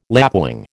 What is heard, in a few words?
"lapwing", American